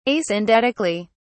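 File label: "asyndetically", British English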